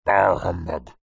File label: human male old grumpy says bah humbug